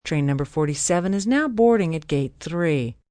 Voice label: Train Board, Train, subway, PA, announcements, female